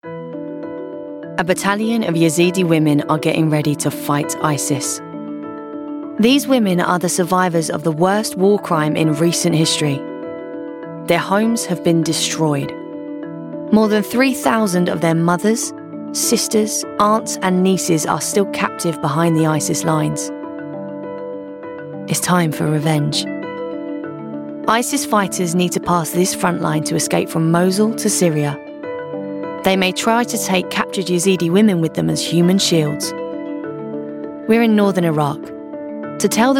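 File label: documentary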